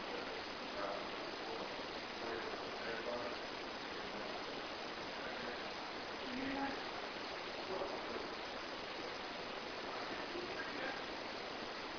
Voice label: Unexplained female voice (Did you hear that); Case 032 Wampler Home

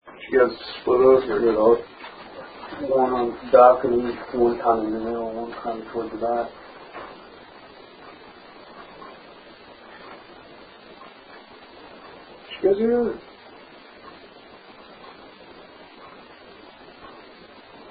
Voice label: Theatre Scare Room Basement, Male voic

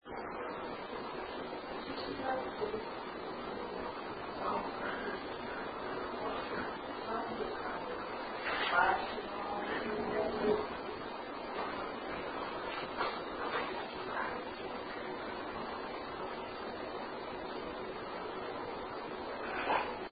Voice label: Home Sewing Room unexplained voice

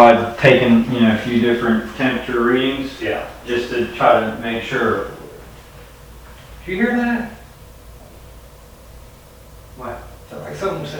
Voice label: Unexplained Whisper (What); at 5 seconds

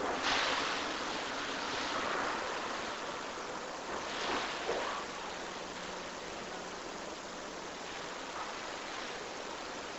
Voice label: Unexplained Low Whisper possible (Help Me); 2nd Floor Mid section

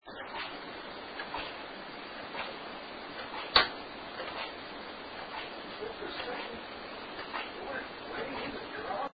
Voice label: Living Room, male voice then click

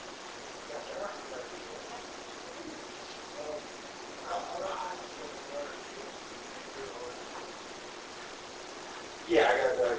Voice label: Home Unexplained Whisper Oh

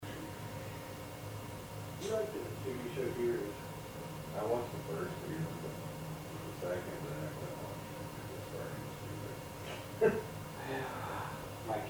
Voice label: Home Kitchen Dining Room WHISPER; Case 021 Zepp

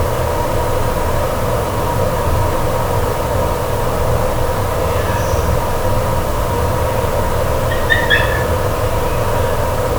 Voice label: Unexplained Male Voice (Yes)

